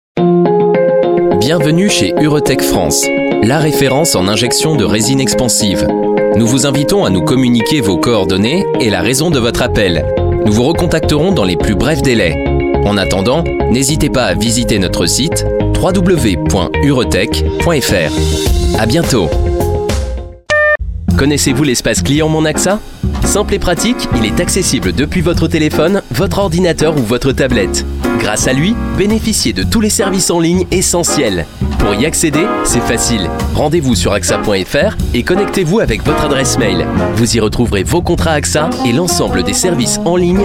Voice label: french voice over male narrator